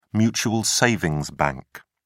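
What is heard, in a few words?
"mutual savings bank", British English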